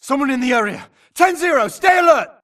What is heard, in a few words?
grunt trans Idle To Alert Init; imc; Titanfall 2